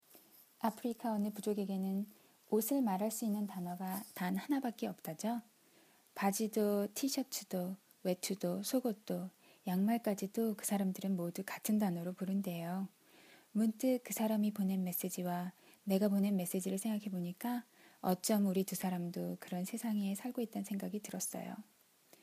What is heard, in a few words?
Voice Sample Korean; voice-overtelephone